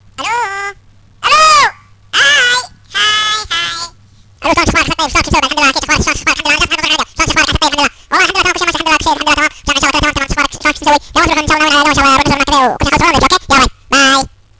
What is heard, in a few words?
baby heloo; Ringtones